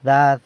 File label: "dad", San Lucas Quiavini; dice